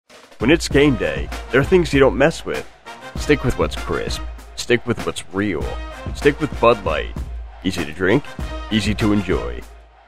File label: Commercial; Commercial Demo